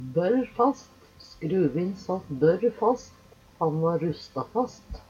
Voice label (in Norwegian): borr fast, Numedalsmål; Uttrykk